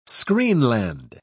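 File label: "screenland", British English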